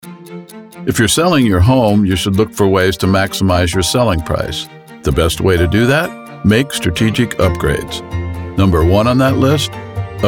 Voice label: Narration